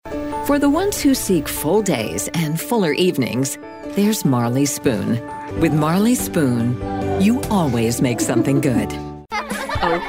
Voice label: American-English Commercial